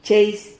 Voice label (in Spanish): "cheis", Silabas